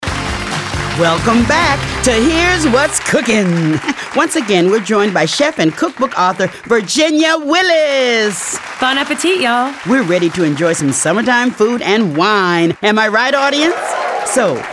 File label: radio ad; goldpeak